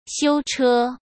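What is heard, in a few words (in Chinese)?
"修车", Mandarin Chinese; hsk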